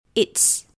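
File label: "its", English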